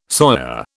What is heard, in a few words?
"soya", British